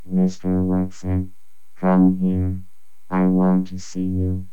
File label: Robot